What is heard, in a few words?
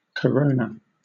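"corona", British English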